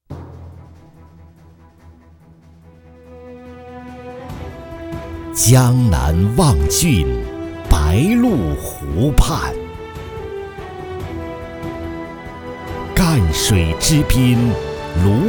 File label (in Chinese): 沙画 样音, 旁白/自白/独白-男-真人商业配音